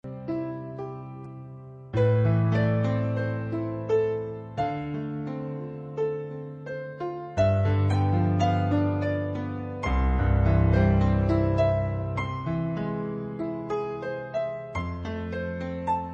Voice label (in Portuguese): Aniversário de Neto – Voz Feminina; Telemensagens para todas as ocasiões